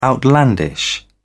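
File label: "outlandish", British English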